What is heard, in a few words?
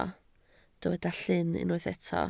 "llyn", Welsh